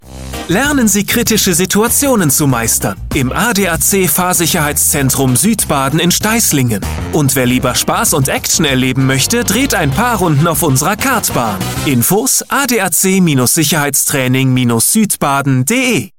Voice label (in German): werbung adac fahrtraining froehlich informativ voiceovers